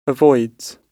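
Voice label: "avoids", British English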